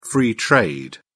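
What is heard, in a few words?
"Free trade", British English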